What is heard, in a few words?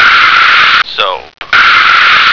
"soap", American